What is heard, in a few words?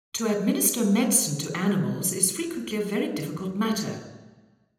Hall Administer Medicine 0deg; eMagLS